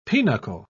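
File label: "pinochle", British English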